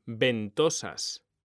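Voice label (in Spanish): "ventosas", Spanish, male; mekanta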